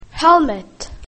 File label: "helmet", english; grade1; basic sounds 1